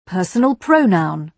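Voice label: "personal pronoun", British English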